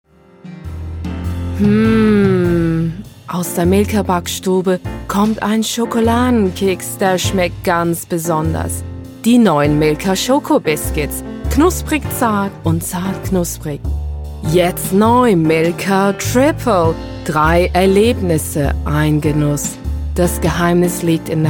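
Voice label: German, General; GoLocalise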